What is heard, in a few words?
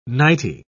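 "nighty", English, British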